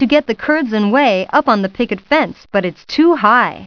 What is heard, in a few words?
too high story narr; Putt Time